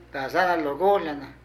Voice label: Hermana mayor, Zapoteco de Petapa; Parentesco; Vocabulary

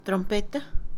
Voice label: trompeta, Mixe, Mujer; Habla; INALI

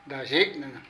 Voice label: Hij@, Zapoteco de Petapa; Parentesco; Vocabulary

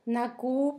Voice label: nuevo, Zapoteco; Adjetivos; San Pedro Mártir; INALI

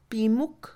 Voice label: juntar, Mixe, Mujer; INALI

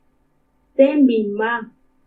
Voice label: "Témbini ka ma", once, Purépecha; Números; INALI

